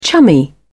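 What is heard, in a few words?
"chummy", British English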